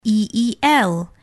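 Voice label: eel spell, English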